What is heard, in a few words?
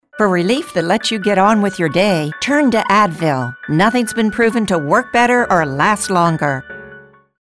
Relief